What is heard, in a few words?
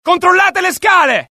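alert, Italian; mohaa; dialogue; sighted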